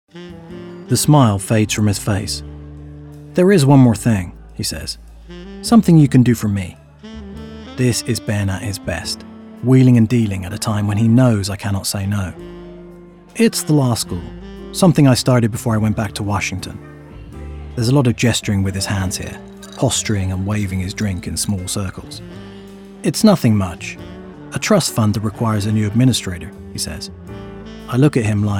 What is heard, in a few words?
Radio Drama Audiobook